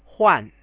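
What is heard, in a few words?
"huan" Chinese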